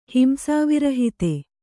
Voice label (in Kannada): "ಹಿಂಸಾವಿರಹಿತೆ", Kannada